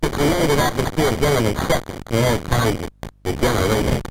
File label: bitcrusher speech